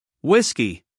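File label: "Whiskey", American English, guy; everyone-can-use-english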